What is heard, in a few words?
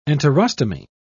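"enterostomy", British English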